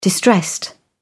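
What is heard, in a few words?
"distressed", British English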